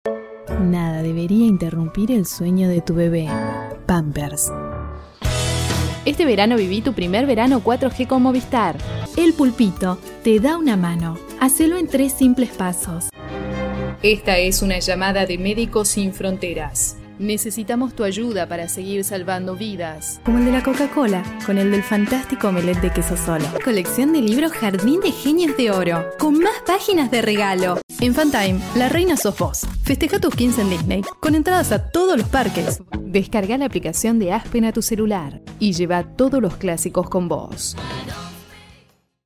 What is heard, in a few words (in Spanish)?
Comercial